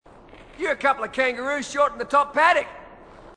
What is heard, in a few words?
marine, aussie; h2dialogue